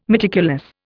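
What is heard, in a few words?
"meticulous", English, Indian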